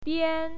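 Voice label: "bian", Mandarin Chinese, first tone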